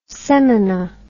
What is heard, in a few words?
"semina", English